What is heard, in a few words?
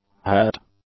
"hat", English; nouns